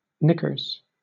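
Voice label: "knickers", American English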